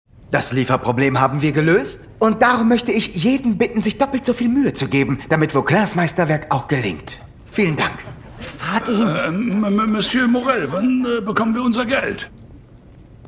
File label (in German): Synchron; Winter Palace Meuterei